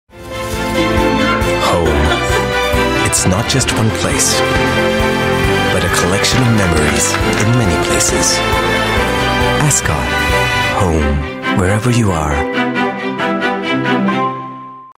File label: Asian English; Ascott